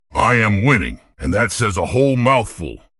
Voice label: happy; fertig classic games